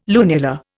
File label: "lunula", Indian